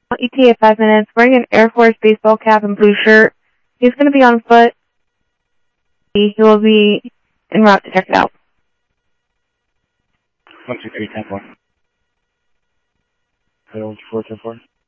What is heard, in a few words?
Sheriff, scanner audio